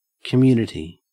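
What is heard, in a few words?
"community", Australian English; pronunciations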